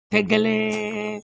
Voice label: Siwu Shape

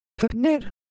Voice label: Semai Shape; ideophones

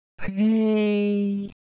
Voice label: Ewe, ideophones; Color Visual

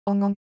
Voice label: Korean Sound No Pros, Ideo Phones

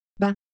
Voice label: Ewe, ideophones

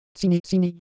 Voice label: Ewe Texture, IdeoPhones

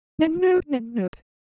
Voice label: Korean, Ideo Phones; Texture

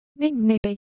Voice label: Siwu Shape; ideophones

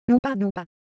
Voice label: Japanese, Ideo Phones

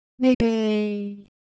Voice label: Ewe Shape, ideophones

